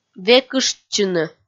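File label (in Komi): "векыштчыны", Komi, female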